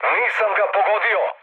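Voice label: Serbian bomb failure mood med, speech; War Thunder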